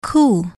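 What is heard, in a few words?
"coo", English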